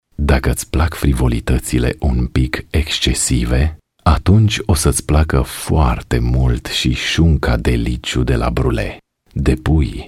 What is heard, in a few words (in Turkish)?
Romence Erkek